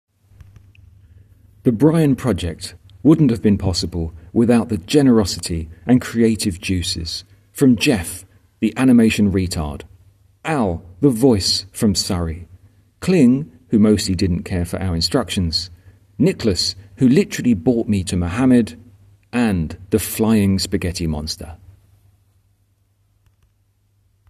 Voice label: voiceover